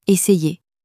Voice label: "essayer", French